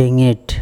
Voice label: "engeT", Andamanese; naval